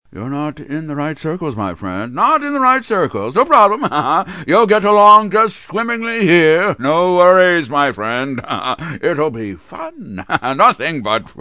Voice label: Ingenuine & Upbeat; Mr. Howell